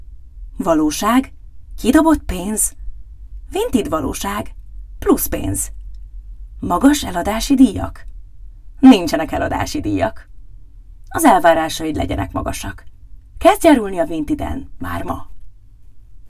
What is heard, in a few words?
Hungarian VO; voice; Vinted